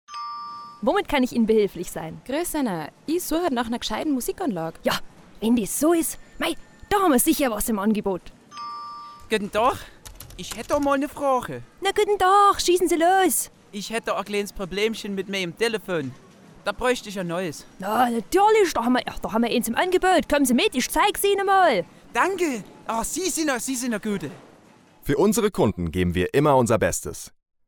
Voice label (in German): Werbung Dialekte; K+B Expert